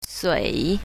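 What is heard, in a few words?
"sui3", Chinese pinyin, south, female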